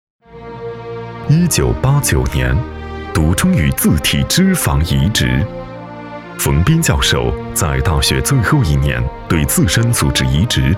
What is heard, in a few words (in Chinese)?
男国 大学教授人物介绍 沉稳